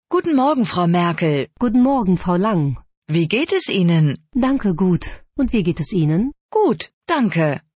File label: dialog